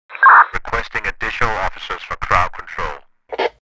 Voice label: Police radio chatter additional officers for crowd control